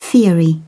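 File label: "theory", British English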